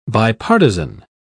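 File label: "bipartizan", British English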